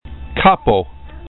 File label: "kapo", esperanto